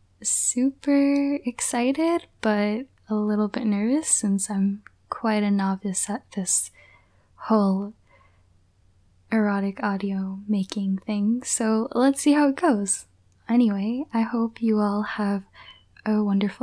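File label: Female Verification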